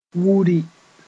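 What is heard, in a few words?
"wuri", Mooré; rush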